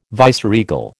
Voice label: "viceregal", American